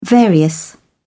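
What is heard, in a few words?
"various", British English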